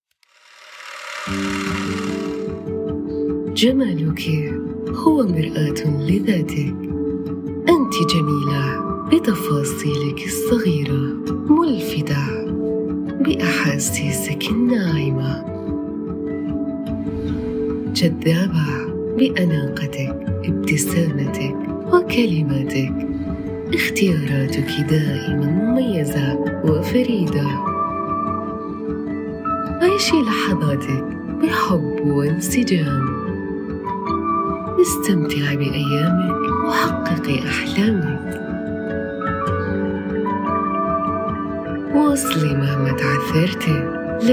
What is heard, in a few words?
Beauty Shop Ad